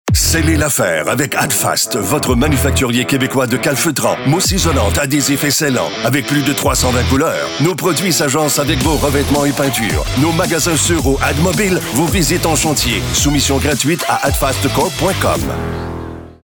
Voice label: pub radio; adfast